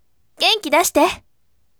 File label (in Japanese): voice "genkidashite" akarume